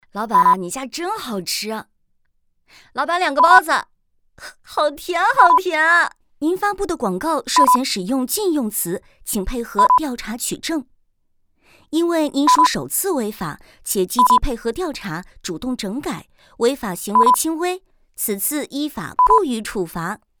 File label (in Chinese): 角色 顾客试音; 小西